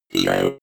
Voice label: robot voice zero fx